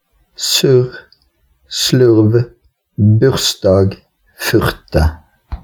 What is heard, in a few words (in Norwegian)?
vokalen u r kort u lyd skarre r